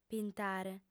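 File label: "pintare", female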